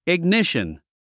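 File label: "ignition", English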